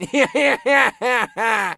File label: scav mutter, voice; Escape from Tarkov; scav2